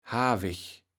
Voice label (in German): "Havich", Platt